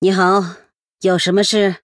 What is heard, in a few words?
Female Human Greetings; 对决模式; 渗透者莉莉安; 问候